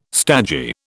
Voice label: "stagey", British